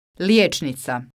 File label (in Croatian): "lijecnica", croatian